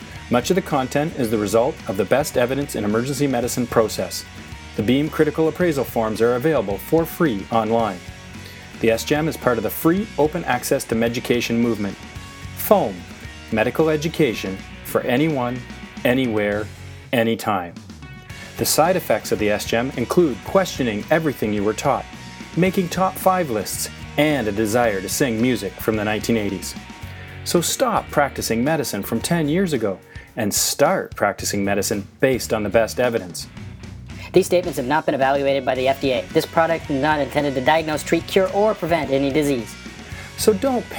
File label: Radio Ad with Disclaimer; SGEM